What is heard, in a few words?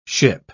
"ship", English